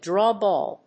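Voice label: "draw ball", English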